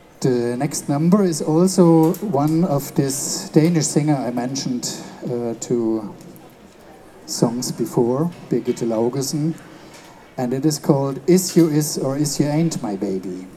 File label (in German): Ansage